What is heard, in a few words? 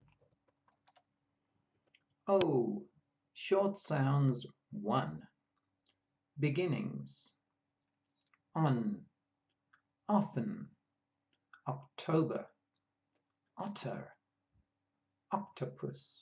O short sounds, pronunciation of australian english